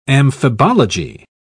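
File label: "amphibology", British English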